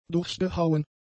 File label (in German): "durchgehauen", German; adjectives